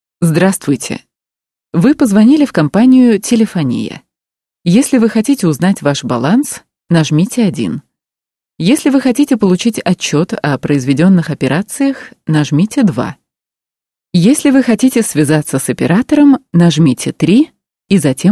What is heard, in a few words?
ivr